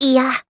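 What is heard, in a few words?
Vox Deny Japanese; Dosarta; kingsraid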